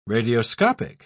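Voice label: "radioscopic", British English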